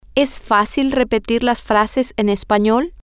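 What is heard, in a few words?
is it easy to repeat the phrases in Spanish, Spanish, female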